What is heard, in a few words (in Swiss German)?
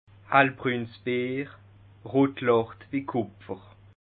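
"hellbruns", Bas Rhin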